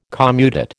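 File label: "commutate", American English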